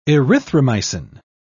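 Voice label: "erythromycin", British English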